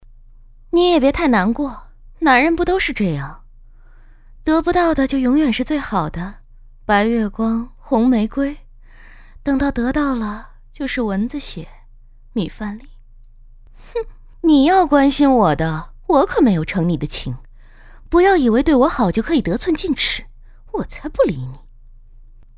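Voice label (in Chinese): 专业女声 【角色扮演】年轻妩媚; 中文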